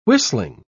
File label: "whistling", uk